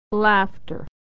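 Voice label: "laughter", American English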